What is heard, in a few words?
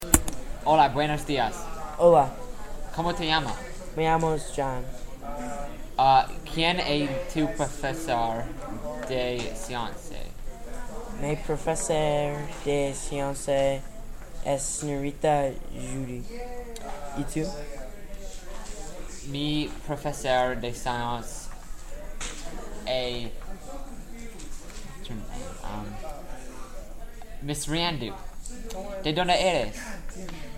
spanish practice test